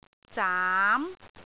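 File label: "zaam2", Cantonese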